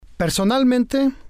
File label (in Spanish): "Personalmente", spanish